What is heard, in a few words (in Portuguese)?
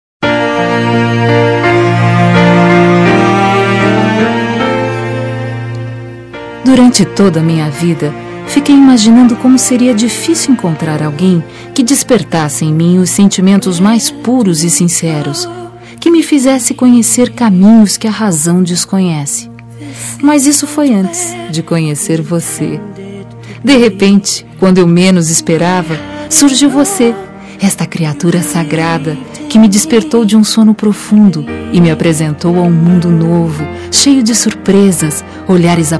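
Rom esperei por voce, feminino; Telemensagens; Românticas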